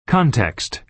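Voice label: "context", American English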